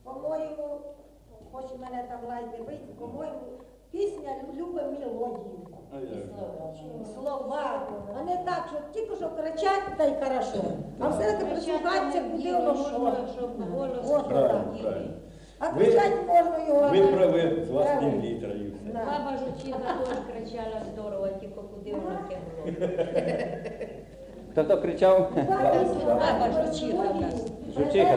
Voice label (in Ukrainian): Інт про спів гучний